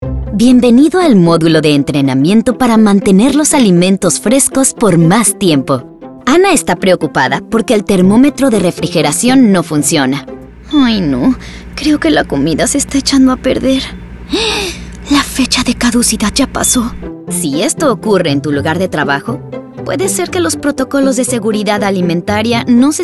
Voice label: Spanish Voice Over, Serious Topics - E-learning Narration; Spanish Voice Over for any type of eLearning project.; eLearning - GENERAL